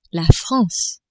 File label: "France", French